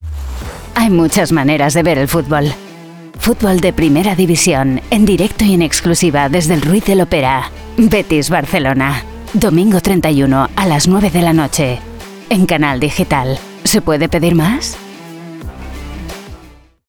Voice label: spanish promos; Europäische spanische Sprecherin